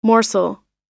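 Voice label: "morsel", American English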